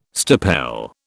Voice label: "stipel", British